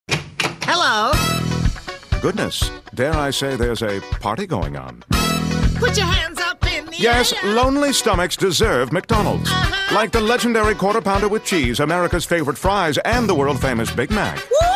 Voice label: commercial